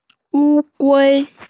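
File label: "wu gui", Mandarin Chinese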